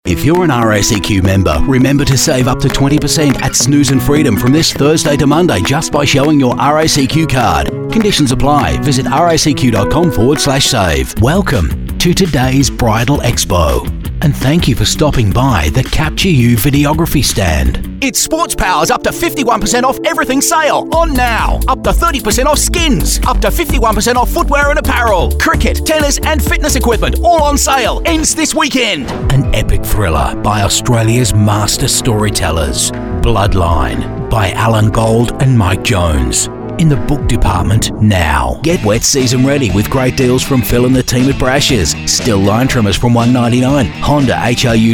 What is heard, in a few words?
male talent retail